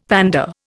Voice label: "pander", Indian; Dictionary

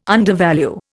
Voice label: "undervalue", Indian

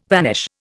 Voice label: "vanish", Indian

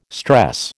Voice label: "stress", American

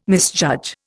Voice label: "misjudge", Indian

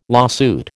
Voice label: "lawsuit", American

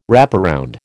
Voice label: "wraparound", American

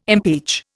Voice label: "impeach", Indian

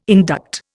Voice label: "induct", Indian

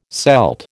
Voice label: "Celt", American

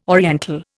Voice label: "oriental", Indian

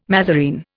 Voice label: "mazarine", Indian

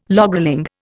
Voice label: "logrolling", Indian

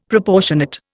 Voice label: "Proportionate", Indian; Dictionary

